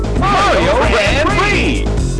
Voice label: announcer, j; mk64